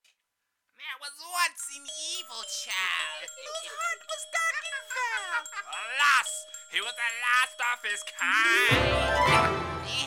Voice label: Gremlin Poetry Voiced; Gremlin Poetry Voiced